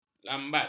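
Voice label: "lambat", Malay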